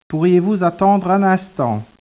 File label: speech, French